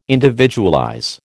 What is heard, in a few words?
"individualize", American